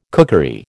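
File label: "cookery", American English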